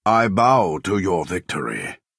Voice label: Dunmer male concede